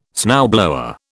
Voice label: "snowblower", British

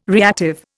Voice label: "reactive", Indian

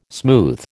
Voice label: "smooth", American

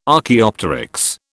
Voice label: "Archaeopteryx", British

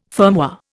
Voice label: "firmware", Indian

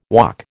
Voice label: "Waac", American

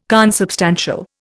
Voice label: "consubstantial", Indian

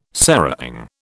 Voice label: "serang", British

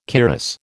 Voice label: "cuirass", American

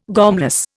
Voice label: "gormless", Indian

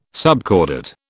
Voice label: "subcordate", British

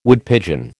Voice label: "Woodpigeon", American

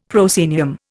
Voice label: "proscenium", Indian; Dictionary

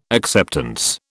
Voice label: "acceptance", British

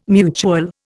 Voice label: "mutual", Indian

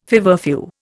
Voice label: "feverfew", Indian

